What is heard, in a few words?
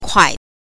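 "kuai" neutral tone, pinyin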